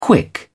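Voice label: "quick", British English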